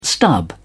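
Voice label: "stub", British English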